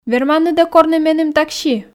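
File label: "верманныд-ӧ корны меным такси", Komi, female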